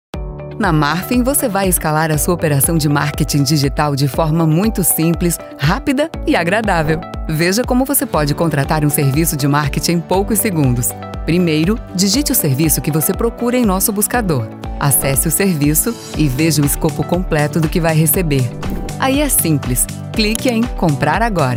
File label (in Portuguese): Tutorial para plataforma Natural Conversada; Marfim